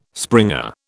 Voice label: "springer", British